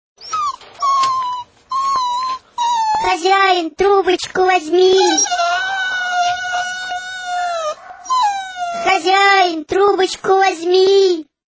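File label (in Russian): Skulyaschiy telefon Hozyain trubochku voz mi, whining phone master pick up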